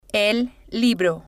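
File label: "el libro", Spanish, female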